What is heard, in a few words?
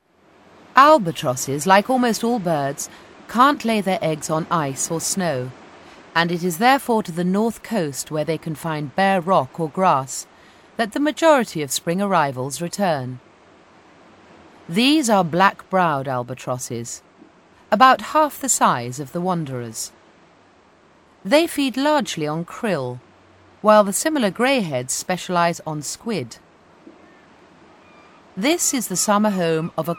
Documentary; Albatrosses; Vocal Point